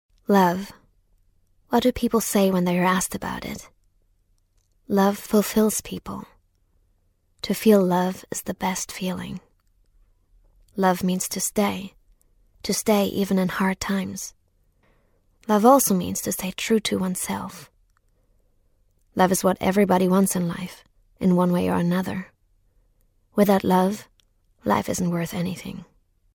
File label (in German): Sprachprobe Englisch